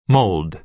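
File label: "mold", American English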